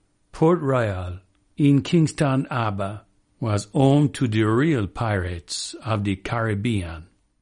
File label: Jamaican